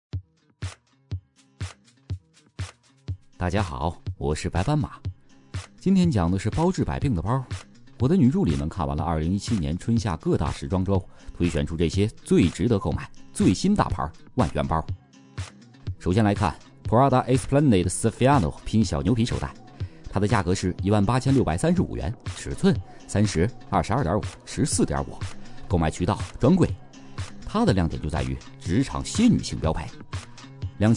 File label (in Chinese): 男声 旁白 轻松快速; 白斑马